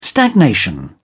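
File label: "stagnation", British English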